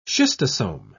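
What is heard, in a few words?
"schistosome", British English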